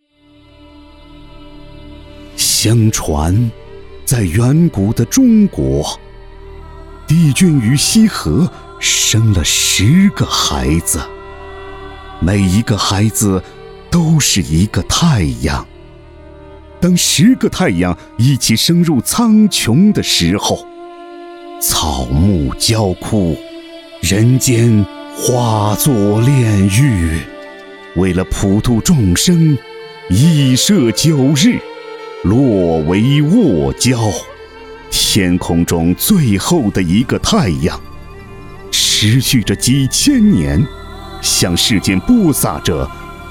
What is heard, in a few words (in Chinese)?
远古 历史 深邃 电影旁白; 后羿传奇